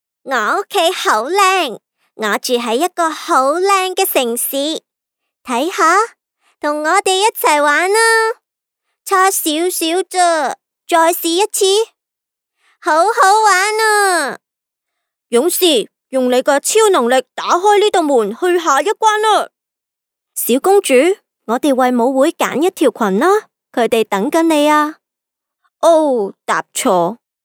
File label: fem children